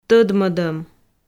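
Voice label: "тӧдмӧдӧм", Komi, female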